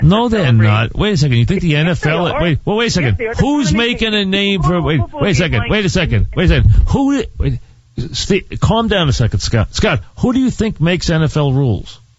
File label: Arguing; WFAN